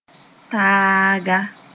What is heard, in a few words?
"taaga", moore; fellow